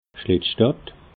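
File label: "schlettstadt", Alsatian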